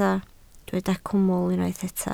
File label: "cwmwl", Welsh